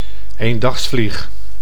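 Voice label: "eendagsvlieg", Dutch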